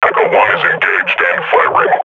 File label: firing, combine grunt